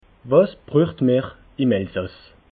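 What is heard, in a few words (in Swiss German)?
"was brucht", Alsatian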